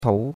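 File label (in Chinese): "tou2" Chinese; Cours de Chinois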